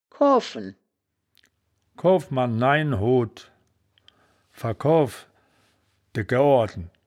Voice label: "koafen", wittlich

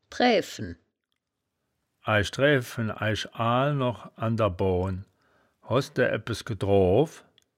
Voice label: "draeaefen", wittlicher; drääfen

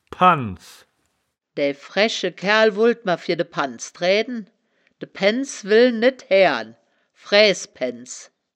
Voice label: "panz", wittlicher; das wittlicher woerterbuch; woerterbuch vertonung

